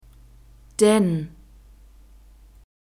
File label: "denn", German